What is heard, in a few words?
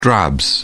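"drabs", British English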